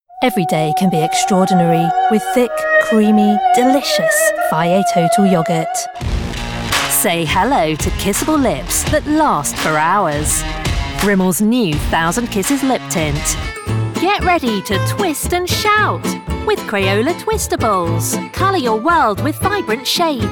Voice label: Commercial SHORT